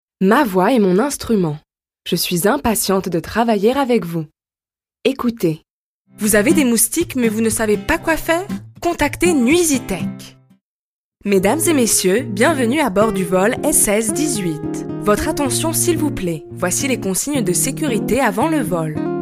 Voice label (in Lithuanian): (MOT) Prancuzu balsas; moteris